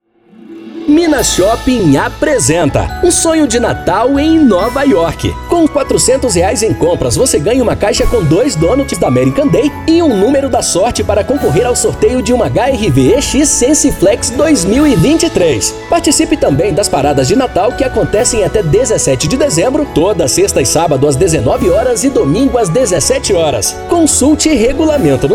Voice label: Christmas Commercials, Portugese; Brasil; Christmas Commercials 2023; Minas Shopping